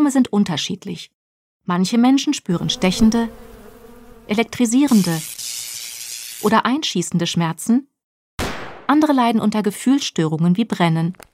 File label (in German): erklaerfilm nervenschmerzen; 1apharma